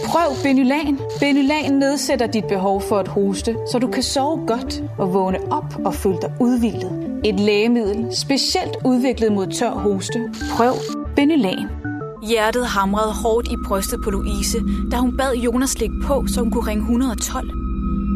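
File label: Dan, Danish; Danish, Scandinavian, Male, Home Studio, 20s-30s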